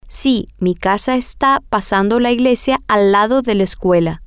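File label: yesMyHouseIsPastTheChurchNextToTheSchool, Spanish, female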